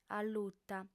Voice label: "alluta", audiofem